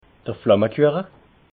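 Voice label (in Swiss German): "flammekueche", Alsatian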